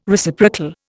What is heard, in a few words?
"Reciprocal", Indian